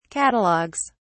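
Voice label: "catalogues", British English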